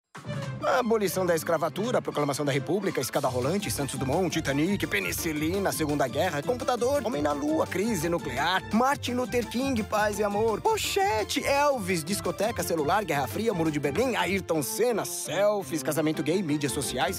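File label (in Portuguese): Caricato Fala rápido; Globo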